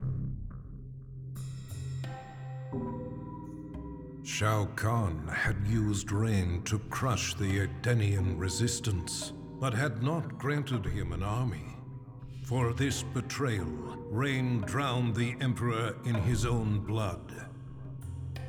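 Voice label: music voice-over endings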